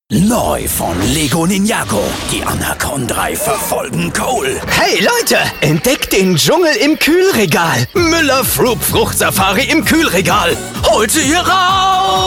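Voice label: male German commercial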